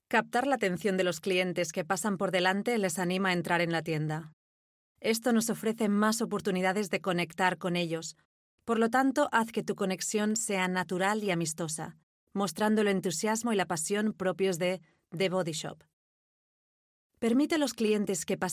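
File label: Spanish European, Corporate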